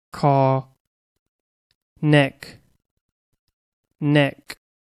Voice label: "neck", English; vocab body